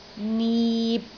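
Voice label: "nip6", Cantonese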